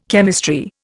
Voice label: "chemistry", Indian

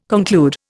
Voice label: "conclude", Indian

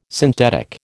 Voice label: "synthetic", American

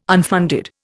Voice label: "unfunded", Indian

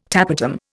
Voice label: "tapetum", Indian

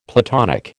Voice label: "Platonic", American

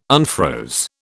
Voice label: "unfroze", British

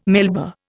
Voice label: "Melba", Indian

